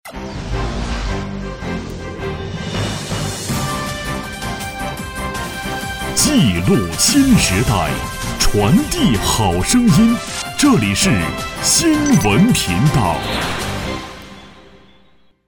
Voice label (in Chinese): 男 频道声 新闻频道片头配音